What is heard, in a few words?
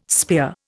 "spear", Indian